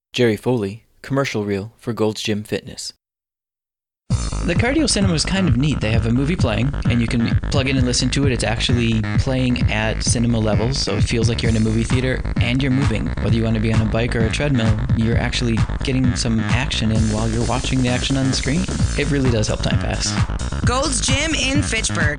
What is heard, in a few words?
Commercial; Gold's Gym